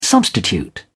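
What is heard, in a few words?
"substitute", British English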